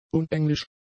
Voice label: "unenglisch", German; adjectives

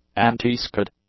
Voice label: "antiskid", English; adjectives

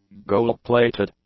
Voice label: "gold-plated", english; adjectives

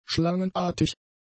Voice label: "schlangenartig", German; adjectives

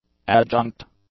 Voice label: "adjunct", English; adjectives

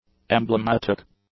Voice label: "emblematic", English; adjectives

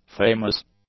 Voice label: "famous", english; adjectives

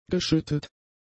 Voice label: "geschüttet", German; adjectives

